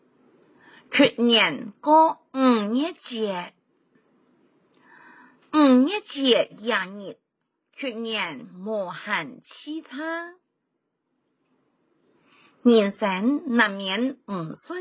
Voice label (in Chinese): 客語 四縣腔; 端午節